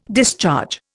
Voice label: "discharge", Indian